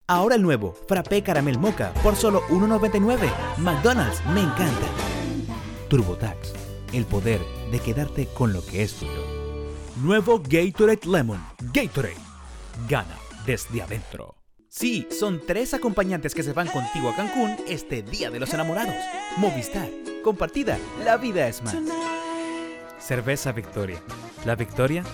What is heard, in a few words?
voiceover foreign language; New York; spanish